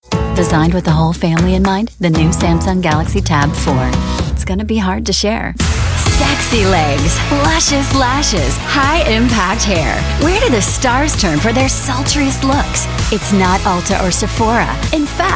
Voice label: commercial

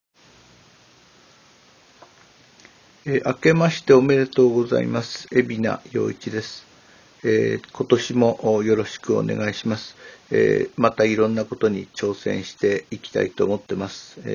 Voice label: happy new year speak japanese only

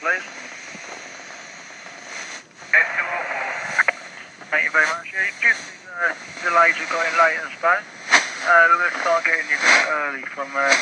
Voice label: bus control 130MHz; blackheath

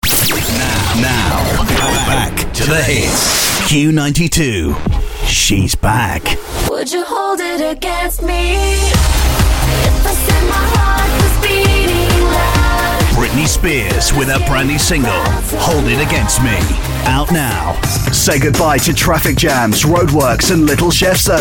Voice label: imaging vo

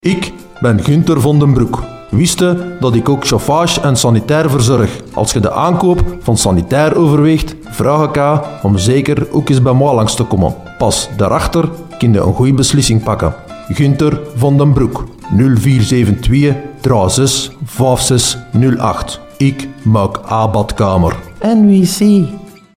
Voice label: reklam

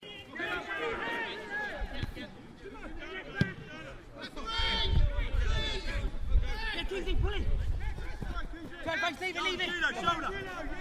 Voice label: team chatter; wroxham fc vs norwich utd

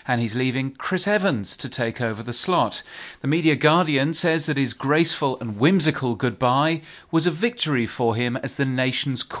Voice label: test radio news update

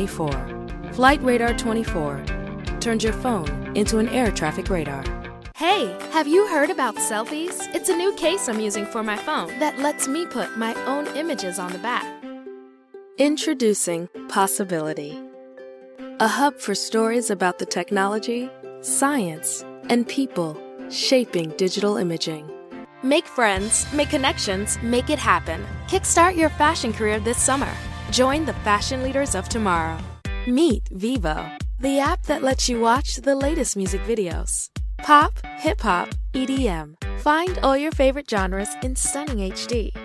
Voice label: web content voice over

